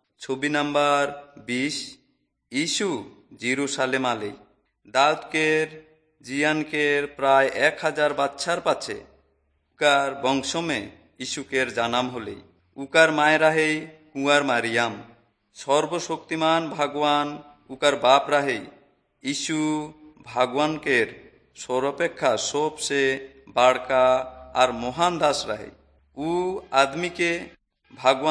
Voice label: servants of god urao, kurukh drama